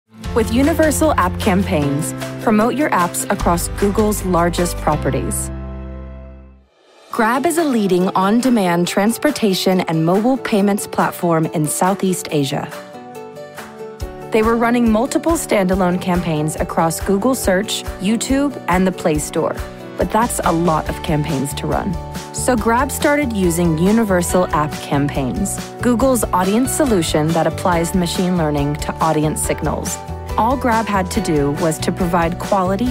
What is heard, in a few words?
Corporate